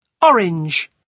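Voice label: "orange", British English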